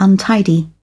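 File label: "untidy", British English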